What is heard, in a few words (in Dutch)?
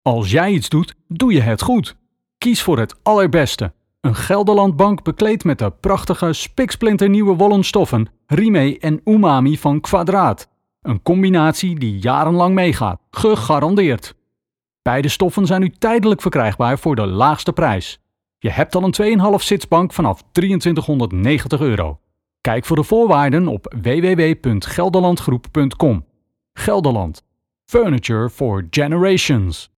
Language NL commercial